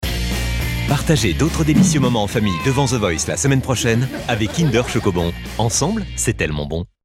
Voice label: Billboard; Kinder Shockobons